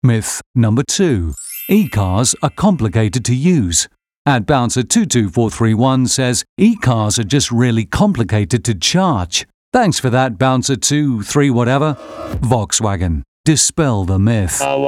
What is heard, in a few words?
Commercial Compilation